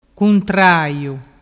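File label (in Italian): "cuntraiu", Vernazzese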